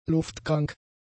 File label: "luftkrank", German; adjectives